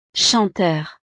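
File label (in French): "chanteur", French; profesiones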